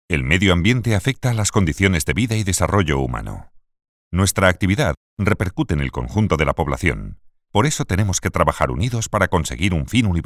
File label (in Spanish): voz masculina grave